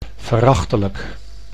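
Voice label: "verachtelijk", Dutch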